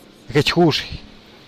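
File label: "gechgux", Teotitlán del Valle Zapotec; needle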